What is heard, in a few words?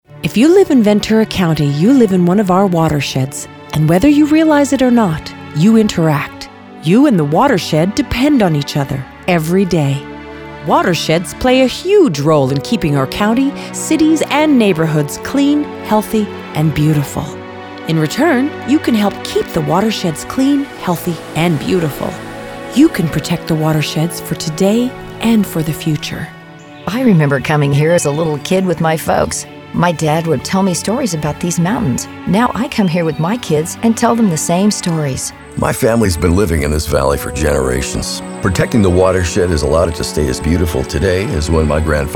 Radio